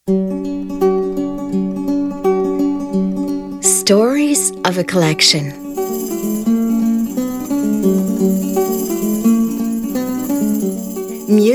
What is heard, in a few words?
audioguia english; delamusica